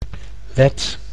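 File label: "wet", Dutch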